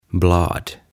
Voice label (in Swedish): "Blad" "Lehdet"